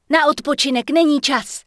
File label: Night Elf Female Heal Me; wowpreklad; czwow; NightElfVocalFemale